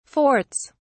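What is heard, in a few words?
"forts", English, British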